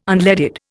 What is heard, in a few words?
"unleaded", Indian